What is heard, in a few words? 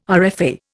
"RFA", Indian